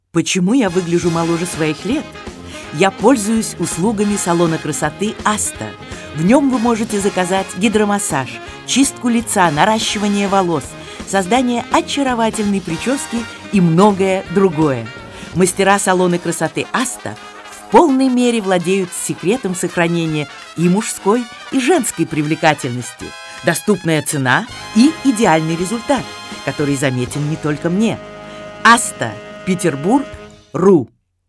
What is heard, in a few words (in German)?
Werbung; Salon Asta